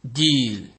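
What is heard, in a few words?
"deal", Nigerian Pidgin, male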